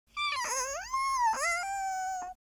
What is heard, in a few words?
sad; speech